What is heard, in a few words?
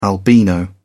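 "albino", British English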